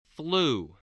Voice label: "flu", spelling

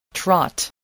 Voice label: "trot", voice

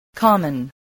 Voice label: "common", voice

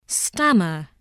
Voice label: "stammer", voice

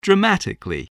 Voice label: "dramatically", spelling

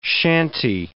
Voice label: "shanty", words

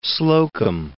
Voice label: "Slocum", English

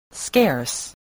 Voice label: "scarce", english spelling

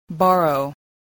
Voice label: "borrow", spelling

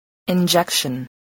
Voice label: "injection", spelling voice

